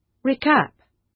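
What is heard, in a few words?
"recap", British; Language, linguistics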